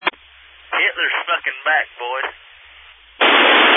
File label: Family Radio Service (FRS)